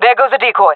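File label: throwing decoy, female voice; player vo; Counter Strike Model Pack; CS:GO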